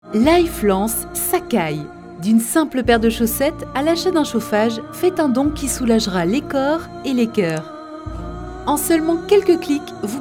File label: Natural Speak